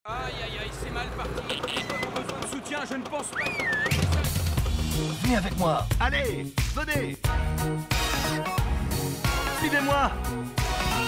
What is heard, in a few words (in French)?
enthousiaste convaincant; coca; euro2016